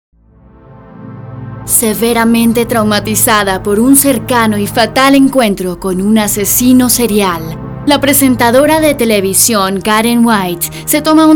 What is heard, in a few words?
spanish colombian commercial; Vereinigte Staaten; Zweisprachiges kolumbianisches Synchronsprechertalent, das in Hollywood lebt